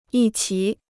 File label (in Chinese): "一齐", Chinese